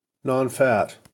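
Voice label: "nonfat", US English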